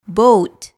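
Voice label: "boat", English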